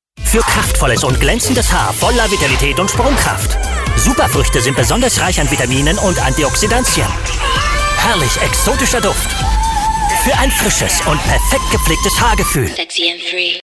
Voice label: radio commercial